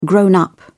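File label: "grown up", British English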